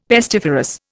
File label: "pestiferous", Indian; Dictionary